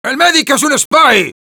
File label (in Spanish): cloaked spy identify, es; Sniper